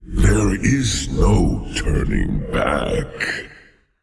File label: Male Old God Bark; YShaarj